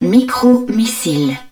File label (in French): micro missiles, french; Battle Engine Aquila